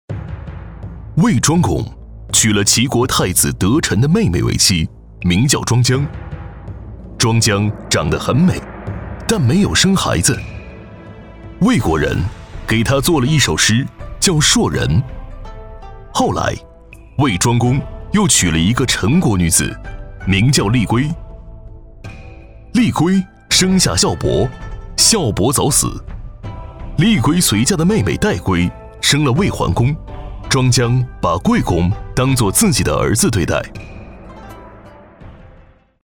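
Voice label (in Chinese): 男17 历史人物纪录片（人文地理）; 优质全能; 历史人物纪录片-卫庄公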